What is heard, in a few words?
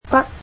"pen", Cantonese